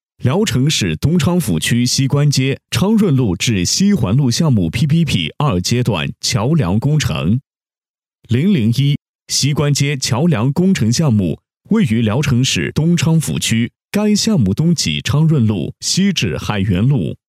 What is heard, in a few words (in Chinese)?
男 工程解说 平稳讲述; 西关街桥梁工程